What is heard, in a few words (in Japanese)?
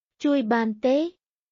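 クメール語（カンボジア語）の日常会話基本フレーズ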